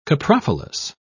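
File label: "coprophilous", British English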